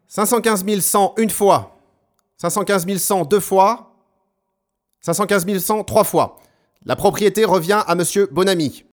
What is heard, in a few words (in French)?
Voix GLAUQUE du Policier; inspirée du MARV SPEACH de « SIN CITY, j'ai tué pour elle » (2014)